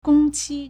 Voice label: "gong1 qi1", Chinese; 工期; gōngqī

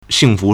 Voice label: "xing4fu2", Chinese

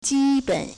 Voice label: "ji1 ben3", Chinese

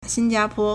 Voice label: "xinjia1po1", Chinese

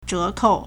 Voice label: "zhe2kou4", Chinese

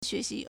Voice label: "xue2xi2", Chinese

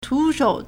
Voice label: "tu2shou3", Chinese

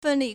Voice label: "fen4 li4", Chinese

